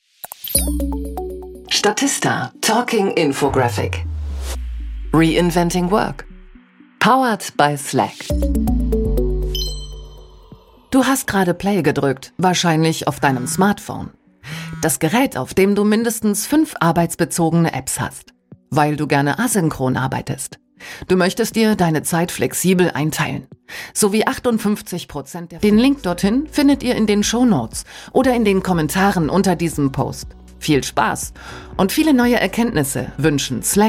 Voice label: Podcast; Statista

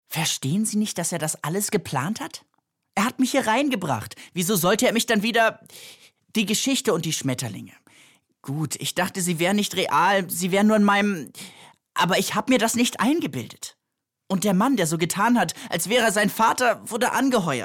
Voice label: synchron

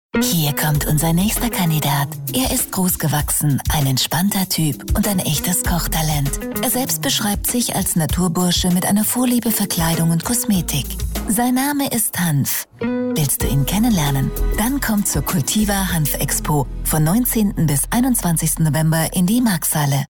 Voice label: Werbung Funk

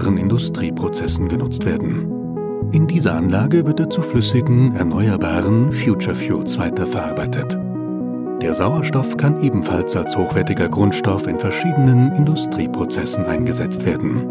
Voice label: Erklaerfilm Wasserstoff

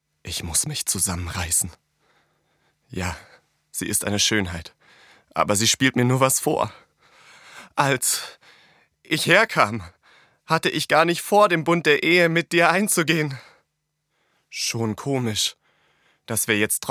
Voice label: ANIME

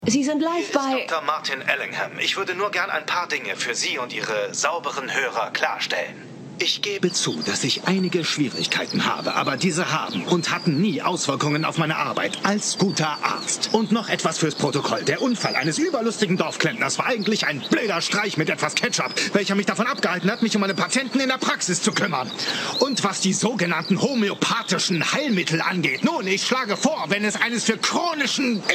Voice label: Lip-Sync (Synchron), German; Serie; "Doc Martin"

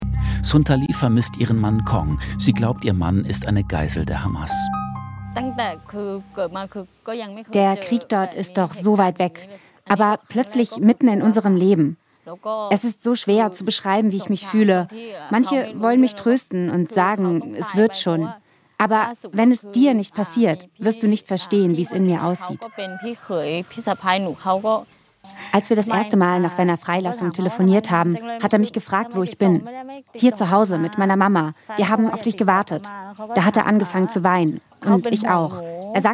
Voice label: Doku; Weltspiegel; Thailaendische Geisel

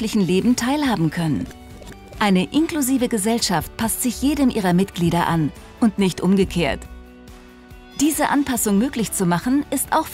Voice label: Erklaerfilm Schritte zur Inklusion; BGW

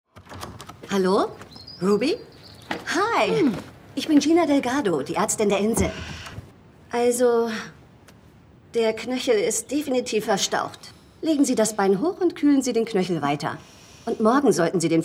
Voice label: charmant fürsorglich; Fantasy Island

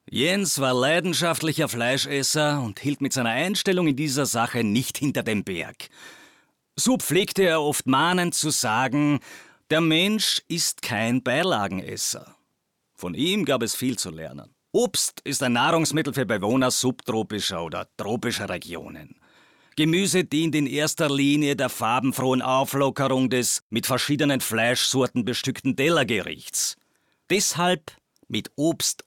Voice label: dialekt

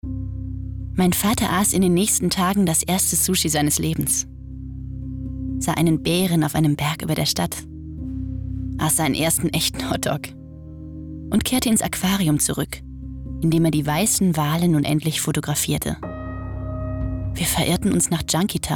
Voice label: erzaehler glueck

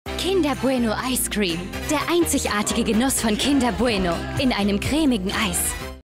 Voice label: Ice Cream Werbung; kinder Bueno

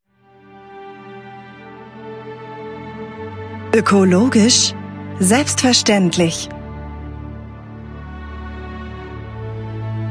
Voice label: Imagefilm Klimastrom

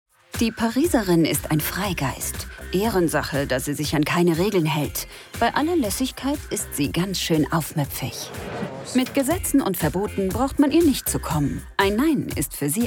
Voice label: Kommentar Doku; La Parisienne

